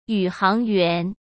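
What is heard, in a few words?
"宇航员", Mandarin Chinese; chinese-flashcards; hsk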